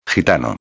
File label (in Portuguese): "gitano", espanhol; palavra do dia